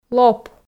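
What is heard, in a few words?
"лоп", Komi, female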